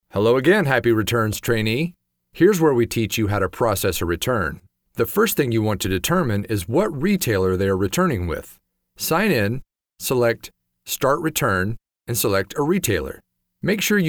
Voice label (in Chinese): 外籍英男 在线教学